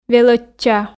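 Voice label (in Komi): "велӧдча", Komi, female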